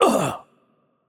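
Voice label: Hurt Light, Korean; ko KR; bloom minigame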